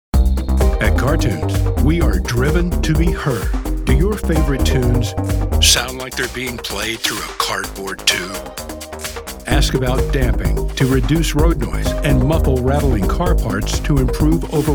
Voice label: Voicemail; voicemail voice over; Internet Jock

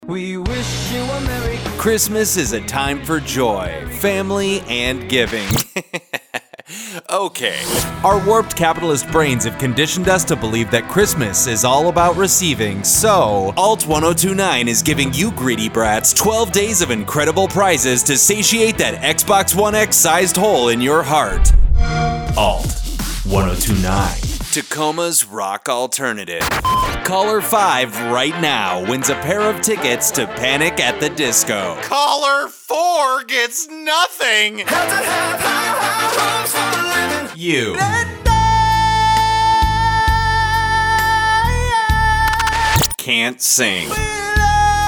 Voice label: Radio TV Imaging

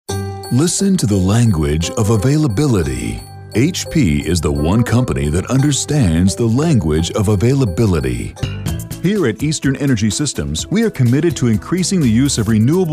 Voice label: narration

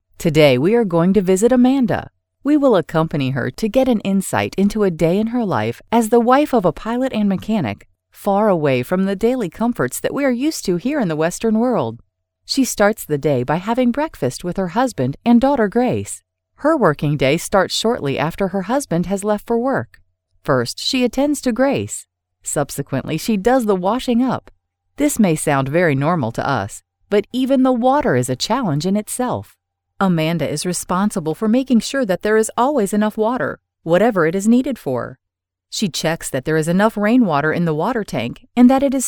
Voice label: Narration; female voice over; Internet Jock

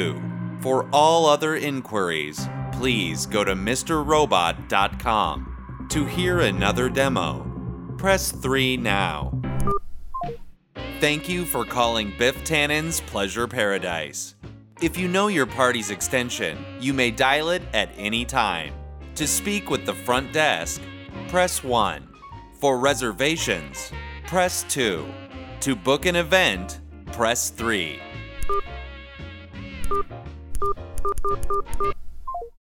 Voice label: Voice Mail; Internet Jock; voicemail voice over

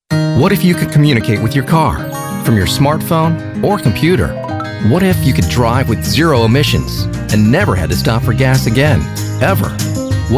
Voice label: Commercial; male voice actor

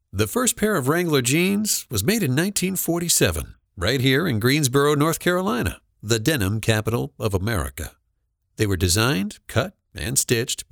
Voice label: Male Narrations; voice over narration; InternetJock

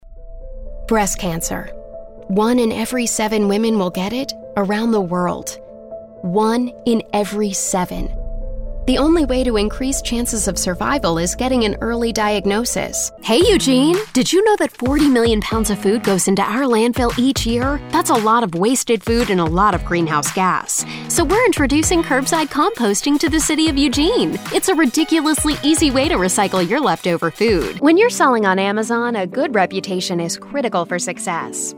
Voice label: Narration; female voice over; Internet Jock

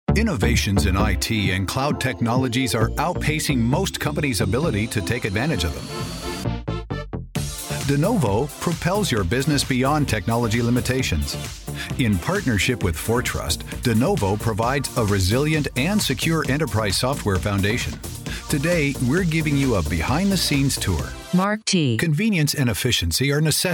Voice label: infomercial

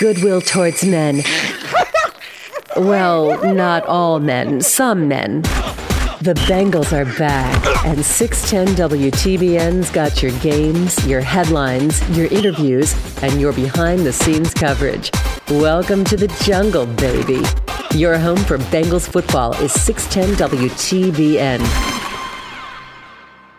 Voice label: Radio TV Imaging

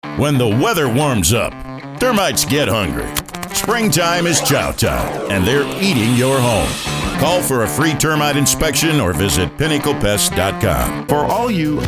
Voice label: Commercial; male voice actor

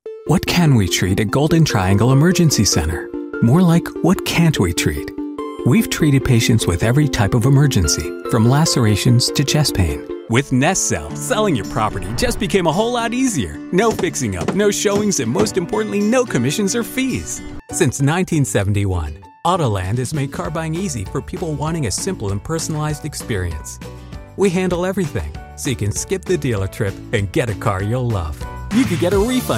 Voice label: Commercial

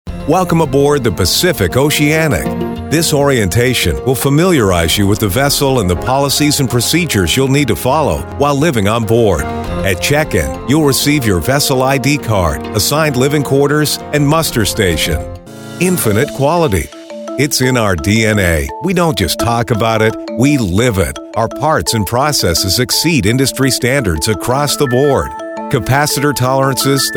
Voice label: Narration

